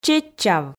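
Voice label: "чеччав", Komi, female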